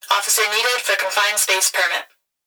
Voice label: Human Female Voice Plant Security Dispatch; Emergency